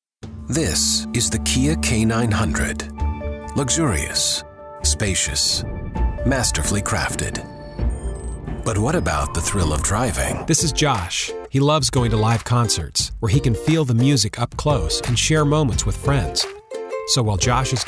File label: Commercials